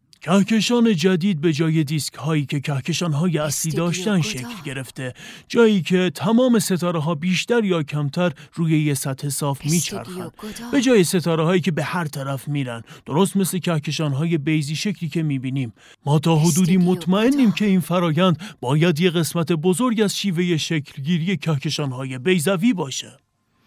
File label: dub